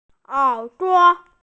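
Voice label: "er3duo5", Mandarin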